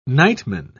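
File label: "nightman", English, British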